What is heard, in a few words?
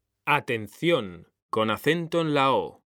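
"atención", Spanish, male; mekanta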